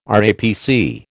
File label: "RAPC", American